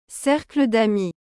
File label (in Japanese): "cercle d'amis", フランス語